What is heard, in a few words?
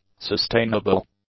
"sustainable", English; adjectives